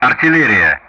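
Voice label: Speech Sound Russian; Common Player Target artillery; DCS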